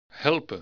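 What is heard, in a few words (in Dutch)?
"helpen", Dutch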